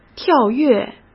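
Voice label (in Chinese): "跳跃", Mandarin Chinese; hsk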